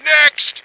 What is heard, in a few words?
next, male